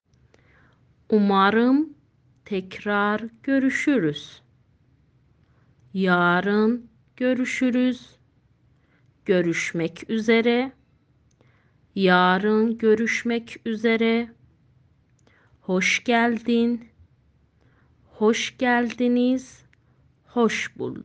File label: turkish